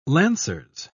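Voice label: "lancers", British English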